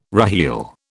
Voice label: "reheel", British

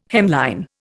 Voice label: "hemline", Indian

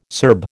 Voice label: "Serb", American

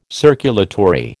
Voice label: "circulatory", American

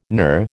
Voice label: "NUR", American

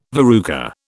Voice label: "verruca", British

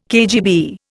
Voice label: "KGB", Indian

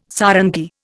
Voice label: "sarangi", Indian

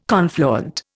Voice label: "confluent", Indian

